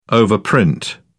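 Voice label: "overprint", British English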